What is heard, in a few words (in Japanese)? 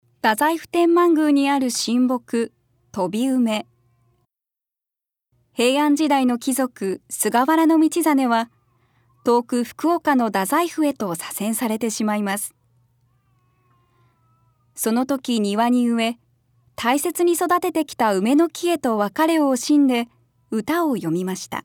長尺 まじめ